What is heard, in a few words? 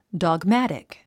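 "dogmatic", English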